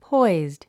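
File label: "poised", English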